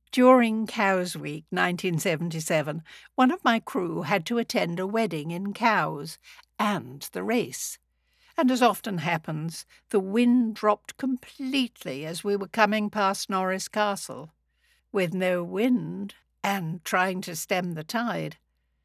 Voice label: Narration, Extract from Journal